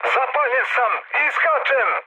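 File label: Serbian on fire mood med, speech; War Thunder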